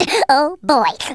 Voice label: "What the", Wacky